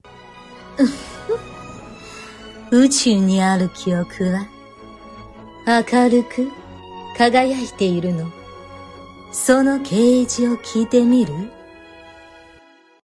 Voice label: 日语御姐音

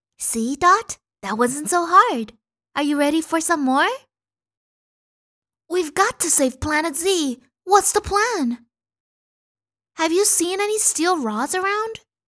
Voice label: 英女岁睿智

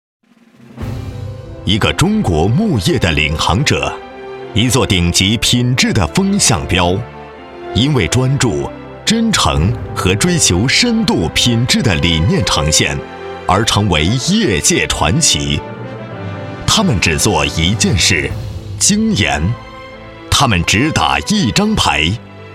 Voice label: 专题大气激情; 格绿木业

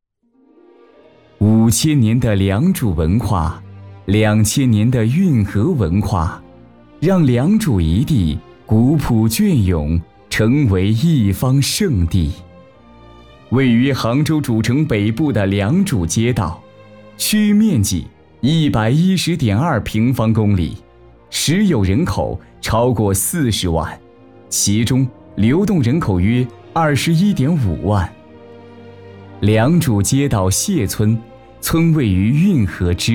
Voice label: 宣传片 温暖大气; 谢村

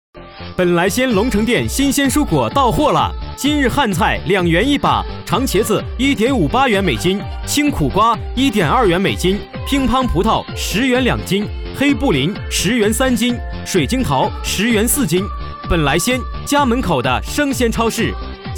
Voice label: 促销年轻明亮

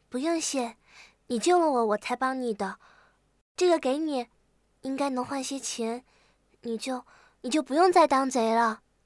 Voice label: 少女单纯

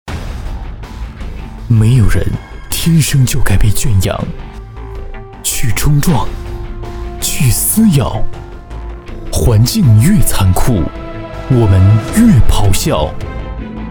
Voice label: 低沉磁性 产品广告; 越野汽车

